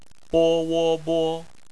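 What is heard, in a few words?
"bo", bopomofo, chinese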